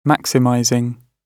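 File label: "maximizing", British English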